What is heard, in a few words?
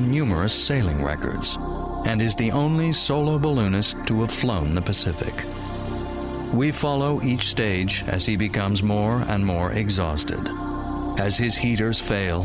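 US English Narrative; GoLocalise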